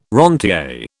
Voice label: "rentier", British

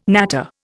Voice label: "natter", Indian

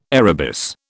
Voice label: "arabis", British

